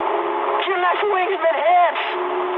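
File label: English left wing mood high; War Thunder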